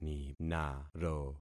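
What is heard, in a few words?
"ninaro", concatenated syllables; Affixing experiment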